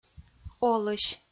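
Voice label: "олысь" Komi-Zyrian, female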